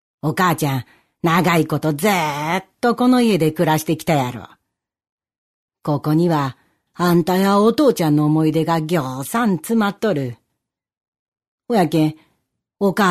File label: nenrei f obasan